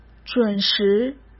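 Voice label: "准时" Mandarin Chinese; hsk; chinese-flashcards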